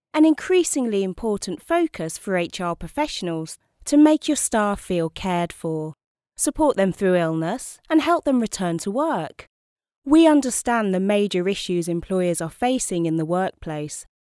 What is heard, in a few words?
explainer sample